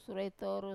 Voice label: "sura e toru" thirteen, Wamesa

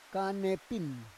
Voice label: "kanepin", Roon

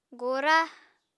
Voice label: "gora", Apatani

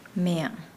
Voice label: Nukuoro; red

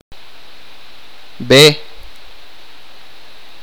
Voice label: camino, achi

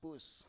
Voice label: "pus", Biak

